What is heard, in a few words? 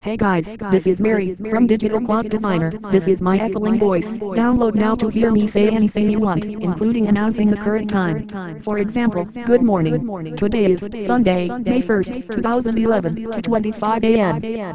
mary echo